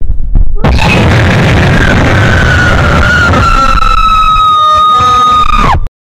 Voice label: blyat bass boosted

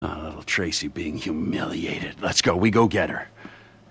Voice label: ugh little tracey being humiliated

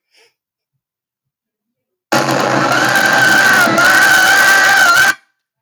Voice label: maaammmaaaa very loud; mario

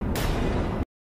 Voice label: golden voice

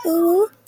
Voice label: girlie uwu

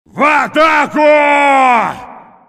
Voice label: russian attack

